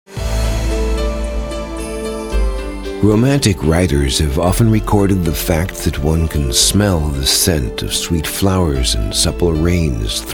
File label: Narration Spain